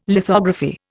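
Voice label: "lithography", Indian English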